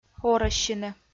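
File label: "орӧссьыны", Komi-Zyrian, female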